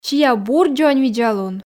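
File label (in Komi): "сиа бур дзоньвидзалун", Komi, female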